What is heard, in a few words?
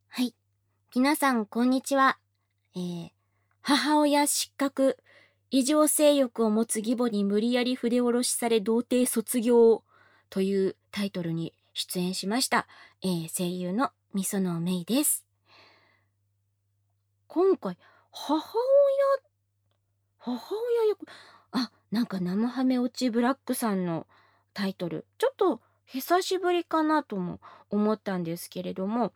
FreeTalk, ASMR; 声优感言; 母亲失格 ～被拥有异常性欲的继母强迫脱处、处男毕业～